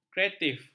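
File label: "kreatif", Malay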